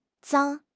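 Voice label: "zang1", Chinese; HSK4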